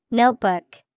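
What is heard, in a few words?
"Notebook", English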